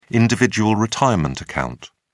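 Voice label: "individual retirement account", British English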